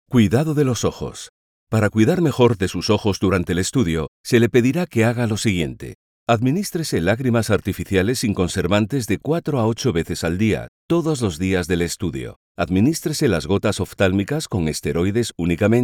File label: spanish commercial; Castile